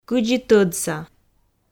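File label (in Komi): "кыдзи тӧдса", Komi, female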